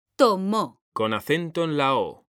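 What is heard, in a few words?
"tomó", Spanish, female; mekanta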